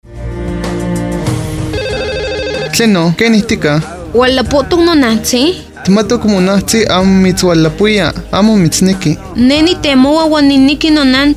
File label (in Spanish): Lengua Nahuatl; FI Orizaba